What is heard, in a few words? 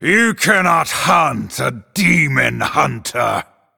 Male Night Elf; Maiev